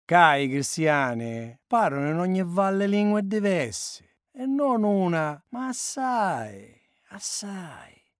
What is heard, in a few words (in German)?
Sizilianer